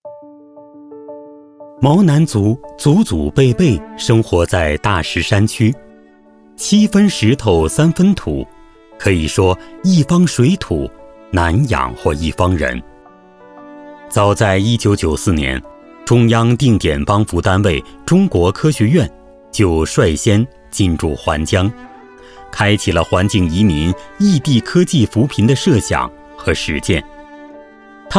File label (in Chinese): 男 异地扶贫宣传片 环境移民 科技扶贫